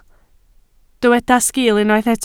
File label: "sgiil", Welsh